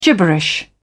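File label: "gibberish", British English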